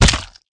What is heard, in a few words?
hurt; ZombieBattle